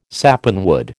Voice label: "sapanwood", American

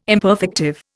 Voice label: "imperfective", Indian

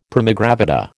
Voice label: "primigravida", American

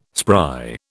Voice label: "spry", British

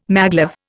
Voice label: "maglev", Indian

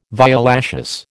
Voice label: "violaceous", American

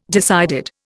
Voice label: "decided", Indian

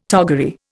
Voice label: "toggery", Indian

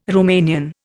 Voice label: "Romanian", Indian

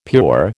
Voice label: "pure", American

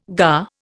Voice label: "gar", Indian

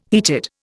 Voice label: "heated", Indian

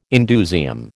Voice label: "indusium", American

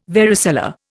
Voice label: "varicella", Indian

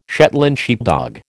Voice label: "Shetland sheepdog", American

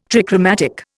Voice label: "trichromatic", Indian

